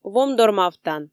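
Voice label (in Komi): "вомдор мавтан", Komi, female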